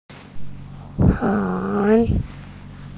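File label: "heng2", Mandarin Chinese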